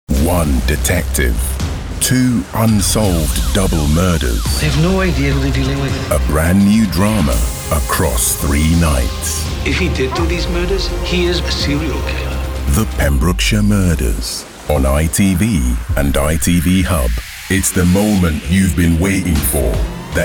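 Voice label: British English, male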